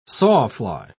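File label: "sawfly", English, British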